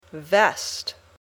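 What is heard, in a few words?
"vest", English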